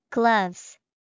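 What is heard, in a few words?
gloves, English vocabulary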